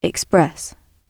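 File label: "express", British English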